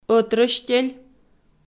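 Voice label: "ӧтрӧстель", Komi, female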